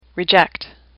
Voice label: "reject", English; AWL

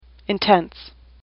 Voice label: "intense", English; AWL

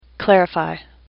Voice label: "clarify", English; AWL

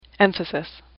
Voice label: "emphasis", English; AWL

